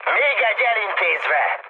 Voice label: player killed enemy, mood high, speech hun